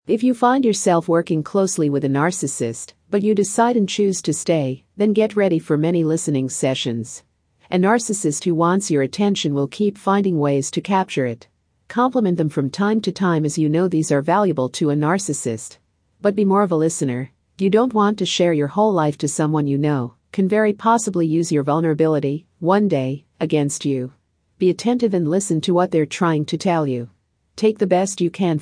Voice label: Female; Narcissism